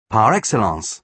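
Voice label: "par excellence", British English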